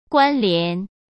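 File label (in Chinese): "关联", Mandarin Chinese; hsk; chinese-flashcards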